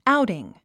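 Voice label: "outing", English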